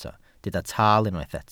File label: "taal", Welsh